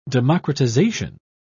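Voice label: "democratisation", British English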